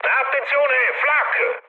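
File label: Italian damaged aa mood med, speech; War Thunder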